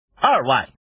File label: man